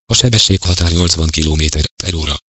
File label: Speed Limit 080 kph, voice male; GPS; HU; Igo 8